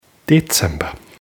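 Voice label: "dezember", German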